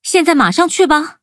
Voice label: loading, zh-hant; cookierunwiki